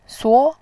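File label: "suo", Mandarin Chinese, second tone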